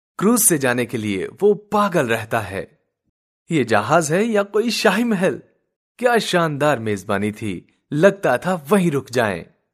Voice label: Hindi sample